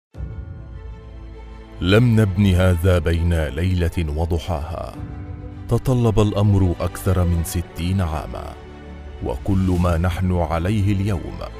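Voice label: male Arabic presentation movie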